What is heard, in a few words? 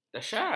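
"dahsyat", Malay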